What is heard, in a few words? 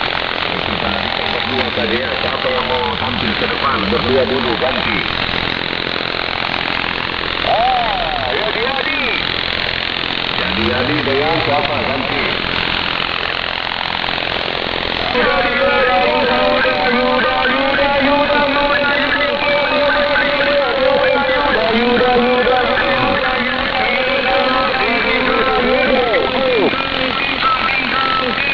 Indonesian net on 7 MHz USB